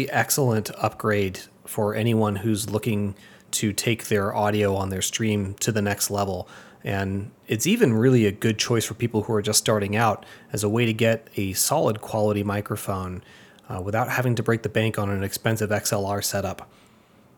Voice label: elgato sound test